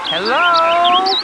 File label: hello, Hello (Man); katamari